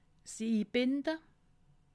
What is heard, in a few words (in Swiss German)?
"inbinde", alsace